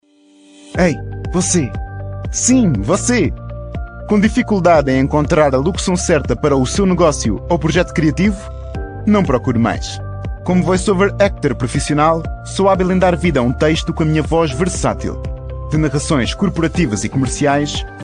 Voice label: EP male, portuguese; 葡萄牙语配音员（男3）